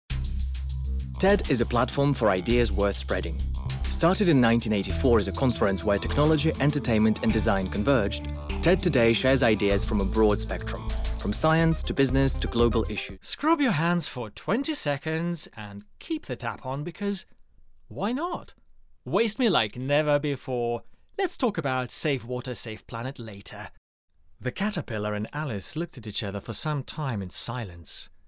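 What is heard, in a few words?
Russian, English accent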